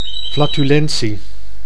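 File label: "flatulentie", Dutch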